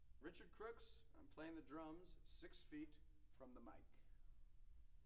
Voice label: Percussion Imaging Tests, Drum 6 Feet, Dry Studio: Announcement